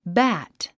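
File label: "bat", English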